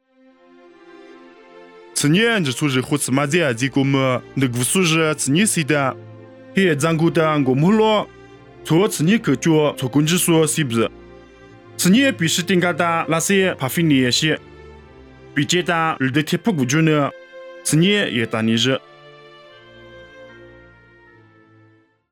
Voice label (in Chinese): 凉山彝语; 地中海彼西底